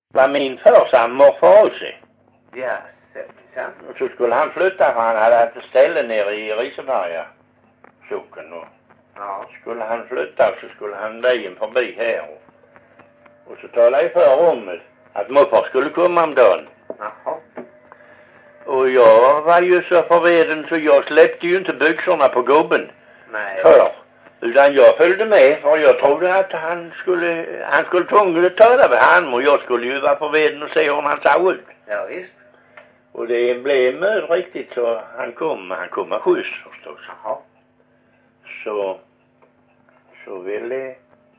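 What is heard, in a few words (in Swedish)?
Perstorp Skåne, dialekter; Folkminnen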